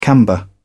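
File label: "camber", British English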